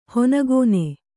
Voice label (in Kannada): "ಹನಿಗಣ್ಣು", Kannada